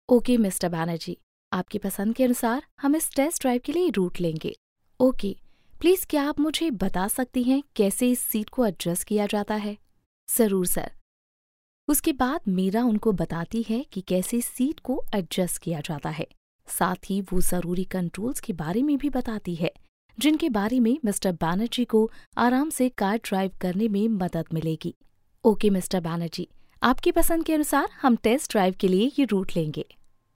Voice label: Announcement Sample Female Hindi